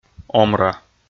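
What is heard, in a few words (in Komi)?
"омра", Komi, male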